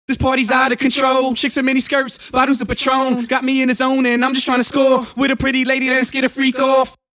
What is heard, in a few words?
Vocals; Vengeance - Essential Clubsounds Vol.4